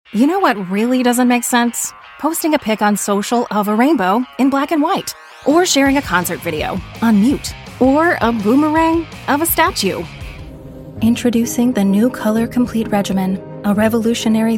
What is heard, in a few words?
Commercial